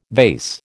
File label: "vase", American